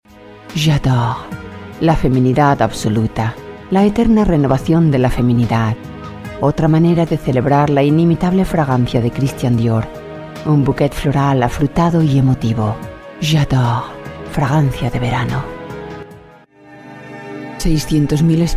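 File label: Spanish, female, commercial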